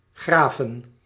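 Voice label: "grafen", Dutch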